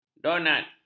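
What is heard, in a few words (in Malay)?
"donat", Malay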